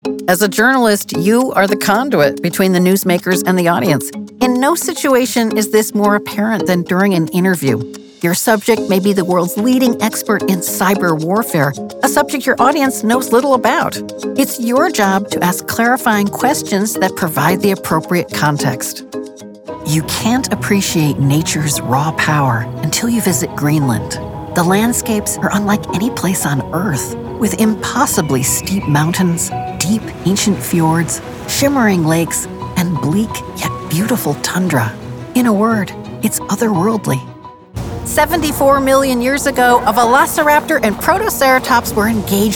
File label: Narration